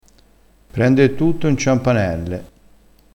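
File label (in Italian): "ciampanelle", dialettale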